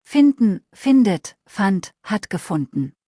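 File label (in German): "finden" German word; verben kurzliste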